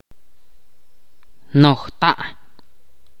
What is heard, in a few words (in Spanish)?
"grande", Ch'orti'